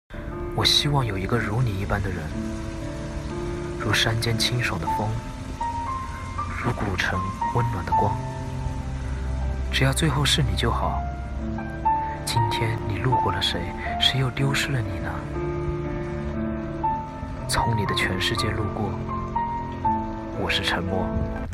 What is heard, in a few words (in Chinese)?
影视配音; 从你的全世界路过